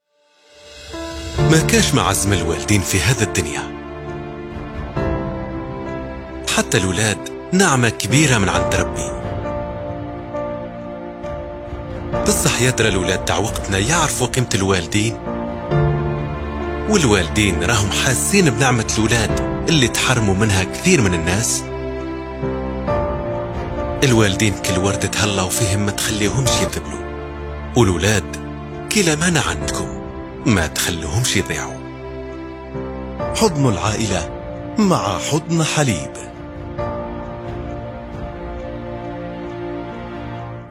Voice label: algerian; algerian voiceover

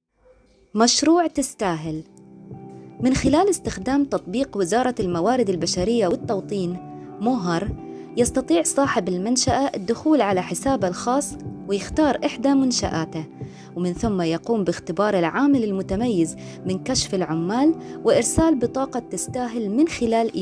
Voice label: UAE TVC, emirati voiceover

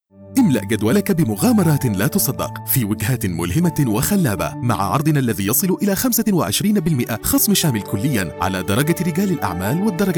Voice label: standard Arabic, voiceover; showreel; msa voiceover

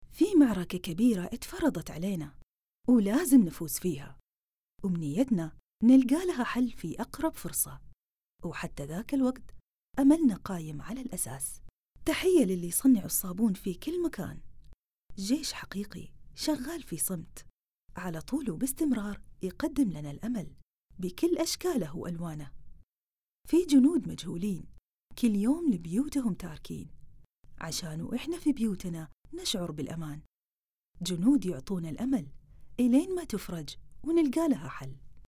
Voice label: saudi ad

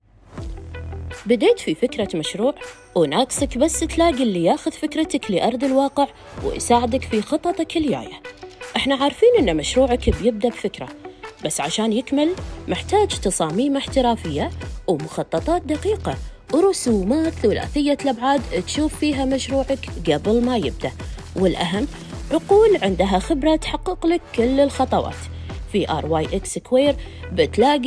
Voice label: kuwaiti voiceover

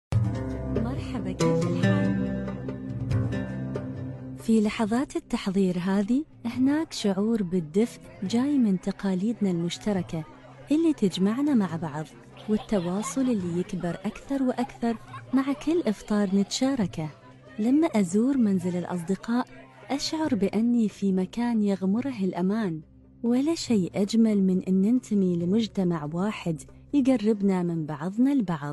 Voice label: UAE Commercials, emirati voiceover

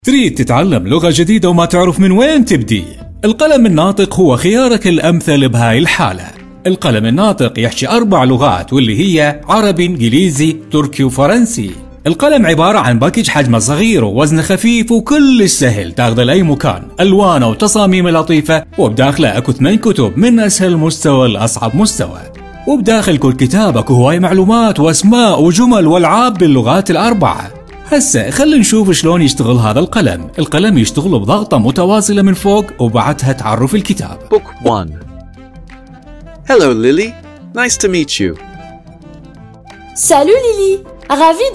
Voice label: iraqi, iraqi voiceover

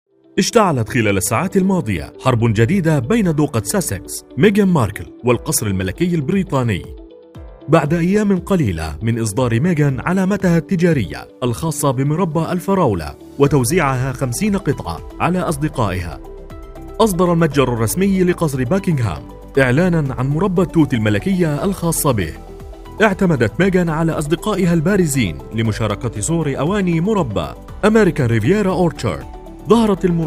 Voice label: Narration, msa voiceover

